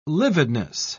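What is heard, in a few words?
"lividness", British English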